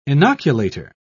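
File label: "inoculator", British English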